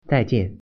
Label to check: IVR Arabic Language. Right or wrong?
Wrong